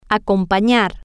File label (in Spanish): "acompanar", Spanish